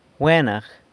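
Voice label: "Gwenag", San Lucas Quiaviní Zapotec; Fine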